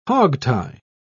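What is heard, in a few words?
"hogtie", British English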